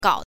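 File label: "gao", Mandarin Chinese, neutral tone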